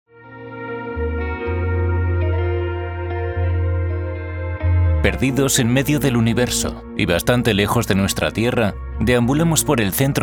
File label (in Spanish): Documental Sistema Solar